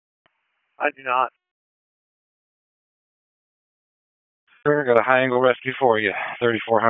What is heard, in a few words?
Comm Center Intersystem, p25; Wake